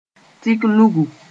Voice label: "tik nugu", Moore; fingerprint

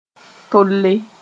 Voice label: "tole", Mooré

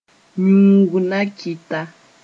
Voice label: "yũugnakida", moore

